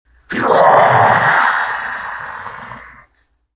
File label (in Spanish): depredador dolor